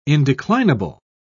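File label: "indeclinable", British English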